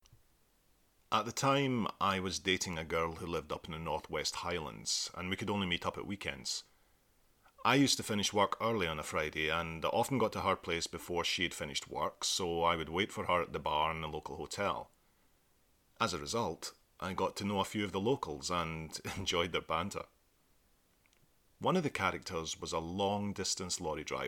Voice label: Book Read